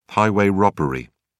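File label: "highway robbery", British English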